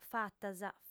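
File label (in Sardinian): "fatas", female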